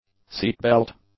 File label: "seatbelt", English; nouns